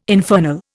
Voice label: "infernal", Indian